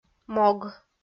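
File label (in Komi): "мог", Komi, female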